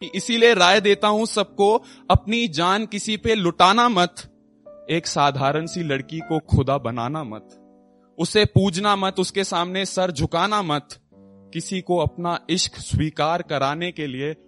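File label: Hindi shayari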